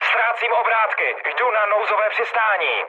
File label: damaged engine, speech cze, mood med; War Thunder